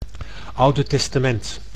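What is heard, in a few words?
"oude testament", Dutch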